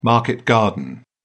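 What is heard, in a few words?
"market garden", English, British English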